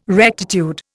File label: "rectitude", Indian